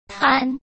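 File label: "瘫", Mandarin Chinese; hsk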